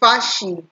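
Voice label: "fashy", Nigerian Pidgin, female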